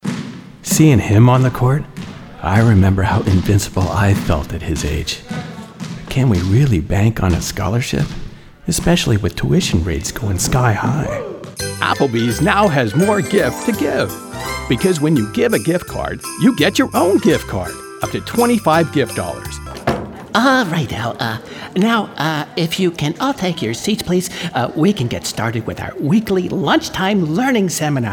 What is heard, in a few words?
Commercial